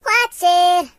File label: "Watch it", Singer